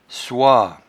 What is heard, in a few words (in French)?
"soie", patois jura